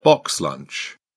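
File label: "box lunch", English, British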